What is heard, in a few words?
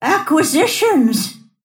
lasthit pain; snapfire